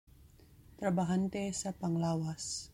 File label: Cebuano